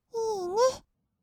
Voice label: いいね, kawaii voice; ASMR; 色々なワンショット

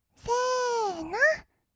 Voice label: せーーーの, kawaii voice; 音ゲー

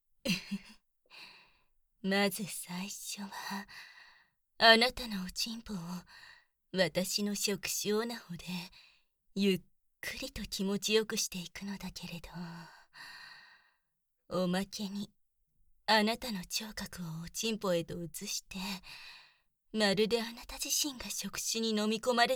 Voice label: 触手催眠 （脳内語りかけ 効果音 HARD）; 触手HARD; おチンポ丸呑み連続搾精

